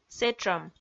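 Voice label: "сэтшӧм", Komi, female; kpv audio dict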